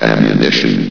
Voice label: "ammunition", vox; Source Engine; bshift